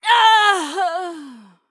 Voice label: Female Human Death